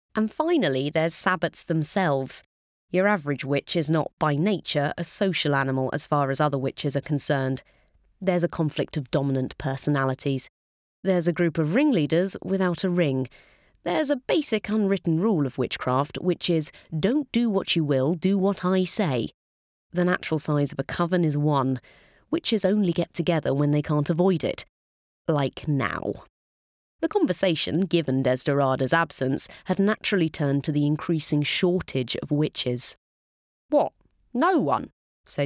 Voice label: Audio Book